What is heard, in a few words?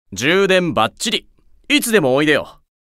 AP Notification Voice; Satan